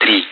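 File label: Speech, Russian, air traffic control; Digits; DCS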